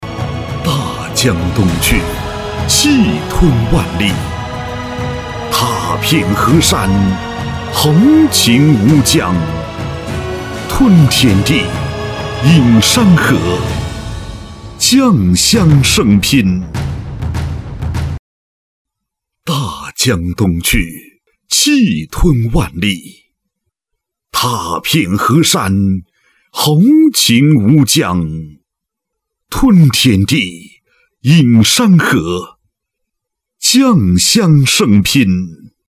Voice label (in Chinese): B男 纪录片